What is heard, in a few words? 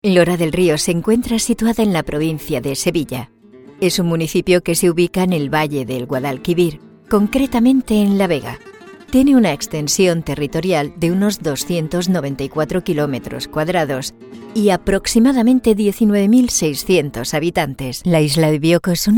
spanish narration